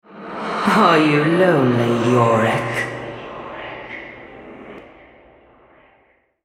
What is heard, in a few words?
altar capture, female, en US; Yorick